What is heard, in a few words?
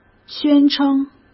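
"宣称", Mandarin Chinese; chinese-flashcards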